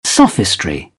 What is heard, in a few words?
"sophistry", British; AmericanBritish